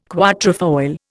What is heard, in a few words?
"quatrefoil", Indian